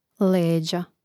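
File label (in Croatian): "leda", Croatian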